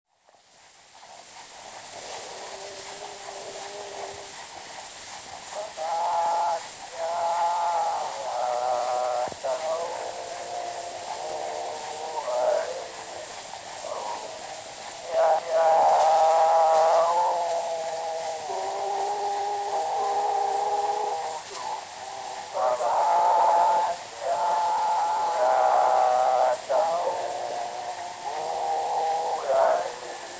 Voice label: Zulu Recordings; Father Franz Mayr